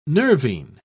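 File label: "nervine", British English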